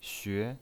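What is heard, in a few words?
"xue", pinyin voice, second tone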